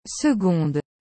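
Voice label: "seconde", French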